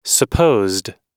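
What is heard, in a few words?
"supposed", US English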